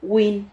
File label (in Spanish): "huin", Silabas